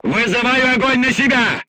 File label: voice message art command oneself, crew tanks russian